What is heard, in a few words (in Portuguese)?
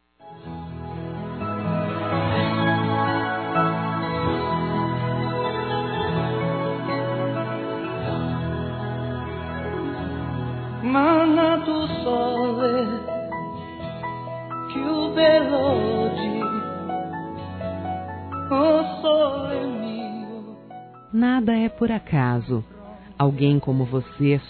GENRO F, Telemensagens, Aniversários, Fem; Aniv Familiar